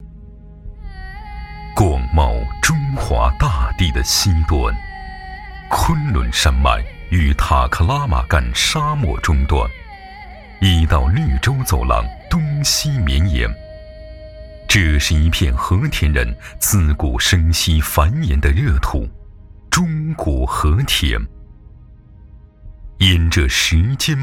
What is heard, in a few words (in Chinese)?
男 纪录片 厚重 深沉; 中国之子